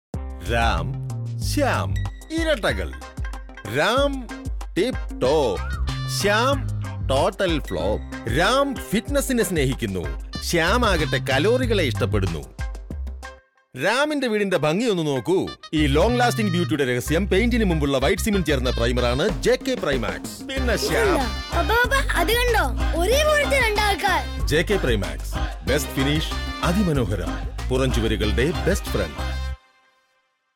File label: malayalam